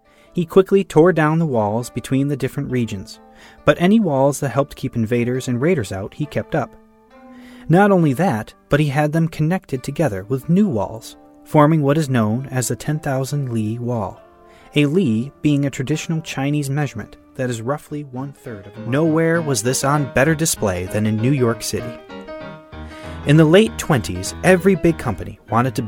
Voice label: narration sample; lap-pak wonders of the world